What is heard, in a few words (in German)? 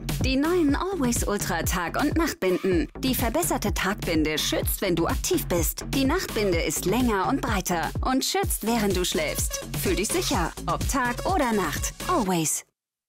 werbung tv spot dynamisch leiser; always ultra tag und nacht